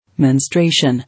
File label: "Menstruation", English